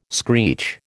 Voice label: "screech", American